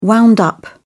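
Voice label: "wound up", British English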